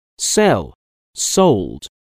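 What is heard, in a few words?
"sell", English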